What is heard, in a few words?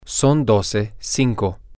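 its 1205, spanish, male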